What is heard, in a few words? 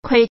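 "khôe", Hokkien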